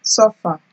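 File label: "suffer", Nigerian Pidgin, female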